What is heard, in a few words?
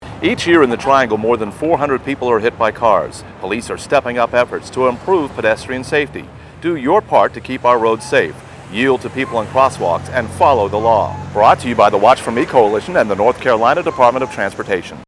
Radio PSA; Watch For Me NC; Campaign Materials